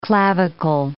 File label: "clavicle", English